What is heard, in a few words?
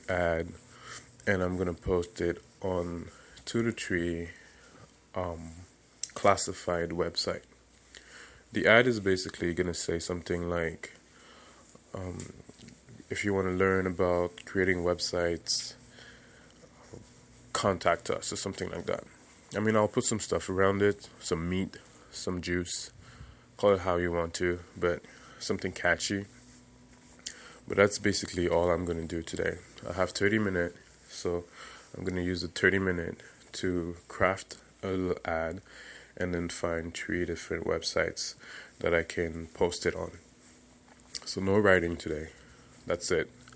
early marketing drops